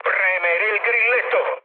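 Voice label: Italian attack position mood med, speech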